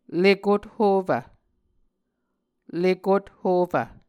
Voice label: "lekothofv", muscogee